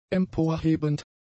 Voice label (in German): "emporhebend", german; adjectives